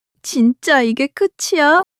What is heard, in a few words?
crying, monologue